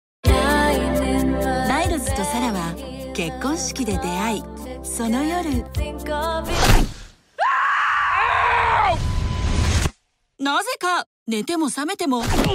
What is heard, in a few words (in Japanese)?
ラブコメ、楽しい、ロマンチック; movies; パームスプリングス